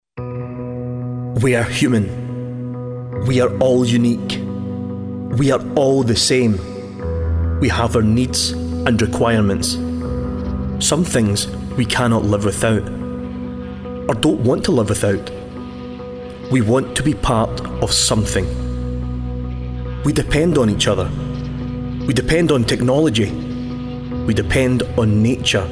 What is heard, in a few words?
Corporate